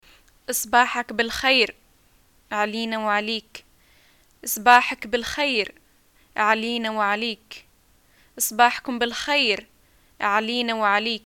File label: greeting morning, arabic